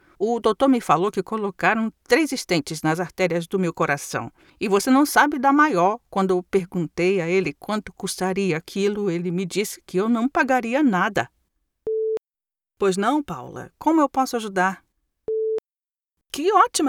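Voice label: Portuguese Brazil General; GoLocalise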